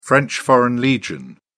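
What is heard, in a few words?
"french foreign legion", British English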